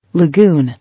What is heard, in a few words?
"Lagoon", English